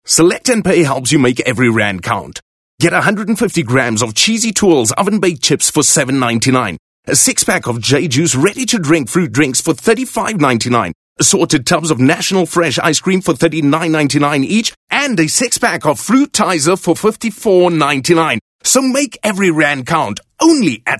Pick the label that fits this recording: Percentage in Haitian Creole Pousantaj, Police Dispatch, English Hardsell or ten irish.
English Hardsell